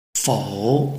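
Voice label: "fou", Chinese, tone 3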